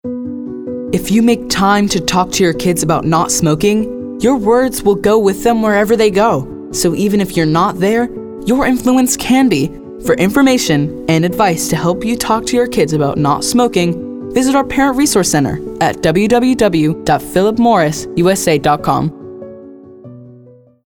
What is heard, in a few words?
Smoking PSA